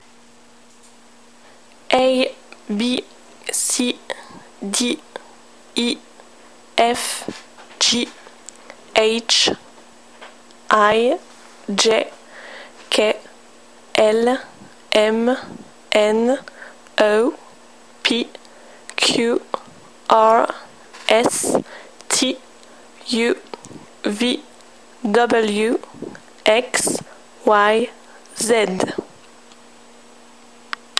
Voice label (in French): alphabet anglais